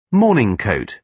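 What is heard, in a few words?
"morning coat", British English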